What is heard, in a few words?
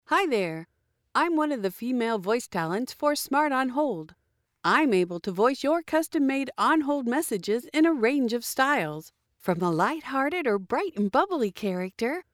FEMALE